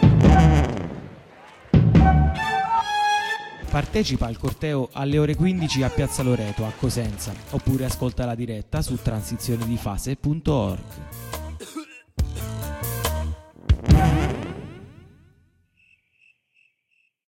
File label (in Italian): Spot Acqua